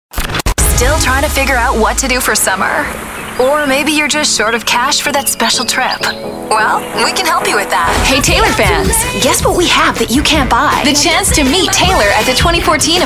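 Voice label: radio female contemporary hit radio; radio imaging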